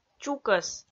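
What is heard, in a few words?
"чукӧс", Komi, female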